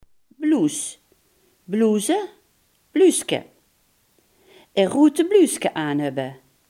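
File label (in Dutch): "blouse" Limburgish dialect word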